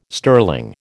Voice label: "sterling", American